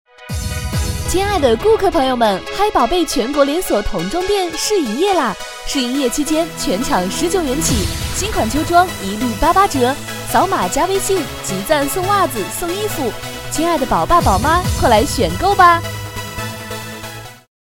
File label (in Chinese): 促销 童装店